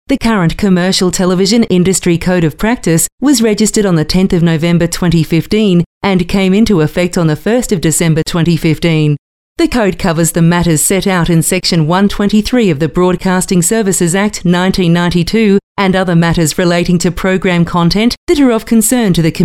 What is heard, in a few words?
female talent corporate